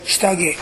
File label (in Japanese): "sitage", minami yamagata